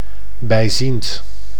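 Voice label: "bijziend", Dutch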